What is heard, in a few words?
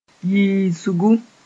"yiisgu", Mooré